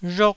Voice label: "rhok1", taiwanese

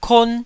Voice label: "kon1", Taiwanese syllable

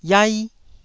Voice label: "iai1", Taiwanese syllable

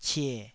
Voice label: "cie3", Taiwanese

